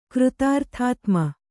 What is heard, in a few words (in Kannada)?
"ಕೃತಾರ್ಥಾತ್ಮ", Kannada